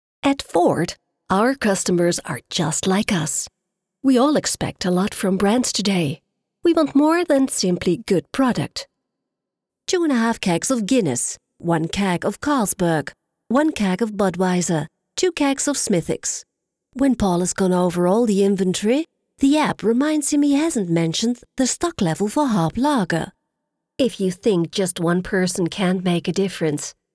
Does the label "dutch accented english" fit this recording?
Yes